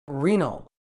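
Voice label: "renal", medical English, British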